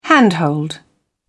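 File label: "handhold", British English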